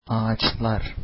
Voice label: trees, turkish